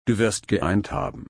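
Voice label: "einen", German, slow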